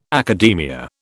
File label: "academia", British